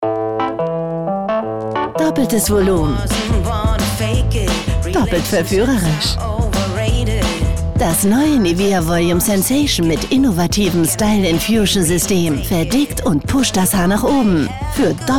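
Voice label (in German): off, sprecher; LOFT VOICES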